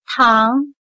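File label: "塘" Chinese, Táng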